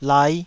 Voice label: "lai5", taiwanese syllables